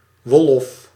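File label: "Wolof", Dutch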